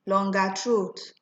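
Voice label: "longatrot", Nigerian Pidgin, female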